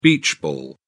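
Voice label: "beach ball", English, British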